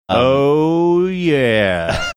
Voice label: drawn out oh yeah